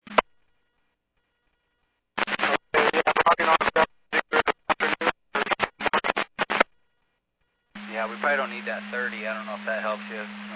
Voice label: Medevac, scanner; AirEvac PhxT